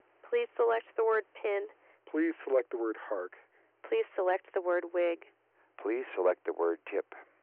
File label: FM clean; Codec